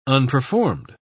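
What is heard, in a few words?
"unperformed", British English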